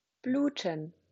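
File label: "bluten", German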